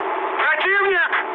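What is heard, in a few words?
Russian enemy detected mood med, speech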